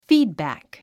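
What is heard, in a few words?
"feedback", 英単語 発音; fíːdbæ̀k フィードバック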